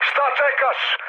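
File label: Serbian attack position mood med, speech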